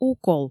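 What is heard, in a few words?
"укол" Komi, female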